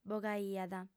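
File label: "bogaiat", female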